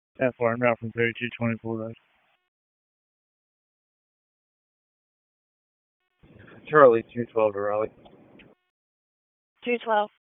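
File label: Raleigh Police Northeast District Dispatch, p25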